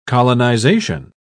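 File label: "colonisation", British English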